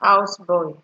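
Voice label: "houseboy", Nigerian Pidgin, female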